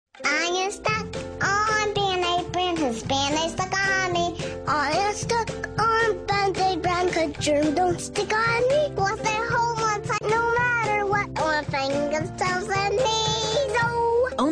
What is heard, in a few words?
Commercial; Band-Aid Brand